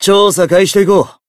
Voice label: encount, jp

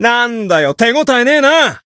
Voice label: win, jp; dennie

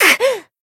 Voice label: Voice kid damaged jp

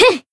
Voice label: attack, jp; eva

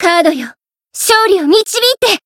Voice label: Voice chant jp; radica

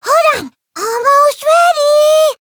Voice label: chant; white nopou

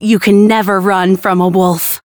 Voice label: Voice chant

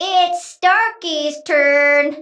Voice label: variable chant

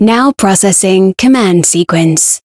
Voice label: variable chant

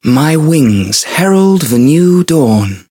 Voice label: chant; clarte

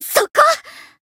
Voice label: attack jp; saki

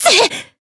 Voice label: damaged, jp

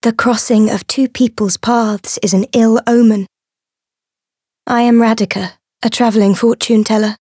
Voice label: Voice story

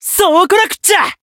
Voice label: Voice chant, jp

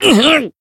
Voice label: Voice damaged jp; dunarith

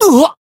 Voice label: damaged jp; claude

